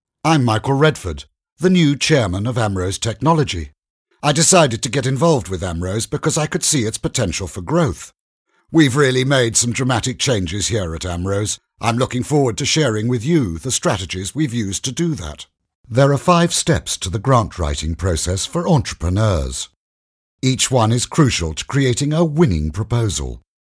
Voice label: uk elearning

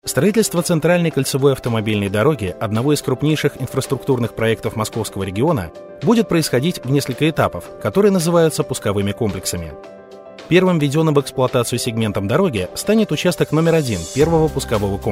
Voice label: ru corporate

